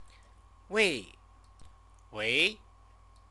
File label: "wei", Chinese; CTCFL; University of Oxford